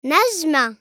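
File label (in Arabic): "نجمة", Arabic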